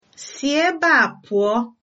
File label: go away, igbo